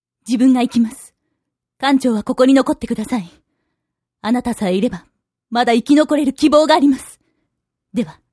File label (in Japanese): zyosei